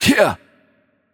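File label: Attack Heavy, Romanian; bloom minigame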